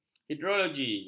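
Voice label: "hidrologi", Malay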